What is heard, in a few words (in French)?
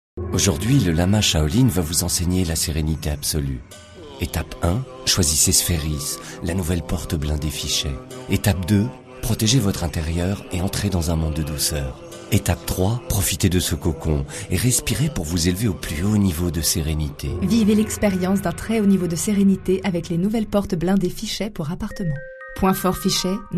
radio pub spot